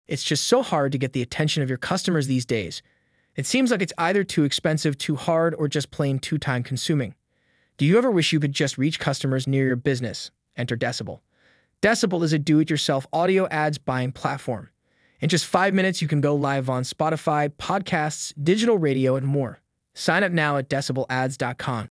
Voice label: ad voice